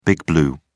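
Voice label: "big blue", English, British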